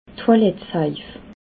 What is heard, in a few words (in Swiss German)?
"toilettsaif", alsace